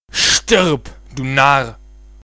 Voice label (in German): Narr